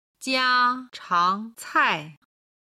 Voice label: "jiachangcai", Chinese